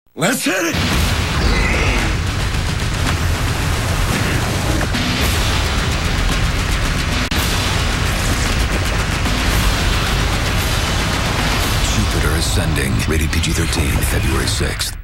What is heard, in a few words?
TV Spots; Jupiter Ascending